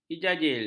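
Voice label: "ijajil", Malay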